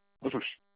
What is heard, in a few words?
voice, ja-JP